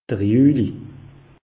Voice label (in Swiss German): "juli", alsace; meteo